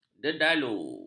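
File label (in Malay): "dedalu", Malay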